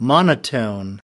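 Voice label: "monotone", us, male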